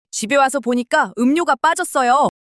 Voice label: anger